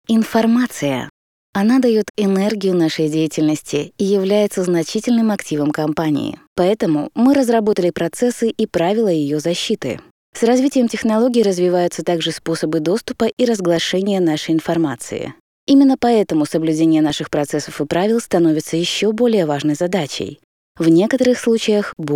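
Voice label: Russian narration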